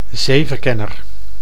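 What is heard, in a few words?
"zeeverkenner", Dutch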